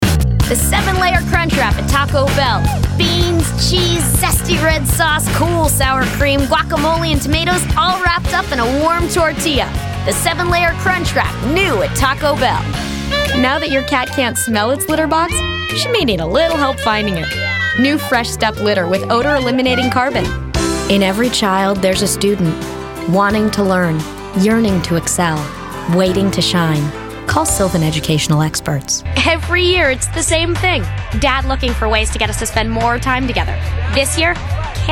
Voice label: commercial female; commercial women